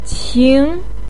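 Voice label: "qing", Chinese pinyin, second tone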